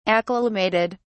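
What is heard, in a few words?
"acclimated", British English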